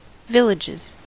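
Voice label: "villages", US English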